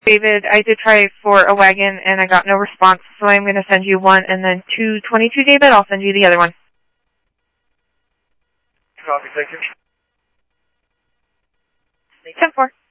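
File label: police department, scanner audio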